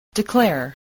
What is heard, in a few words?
"declare", English